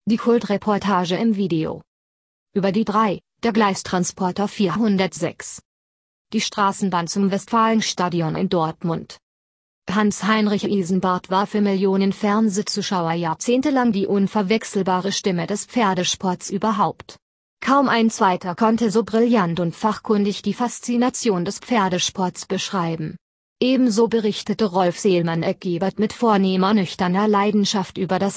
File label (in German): Strassenbahn Reportage